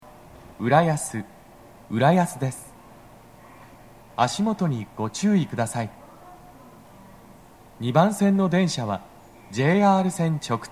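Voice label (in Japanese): 到着放送, 男声, 待合室, 放送は「快速 三鷹行」です。; TOA弦型での収録です。; TOA円型での収録です。; 浦安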